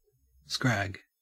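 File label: "scrag", Australian English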